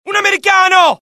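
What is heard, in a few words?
alert, Italian; mohaa; dialogue; sighted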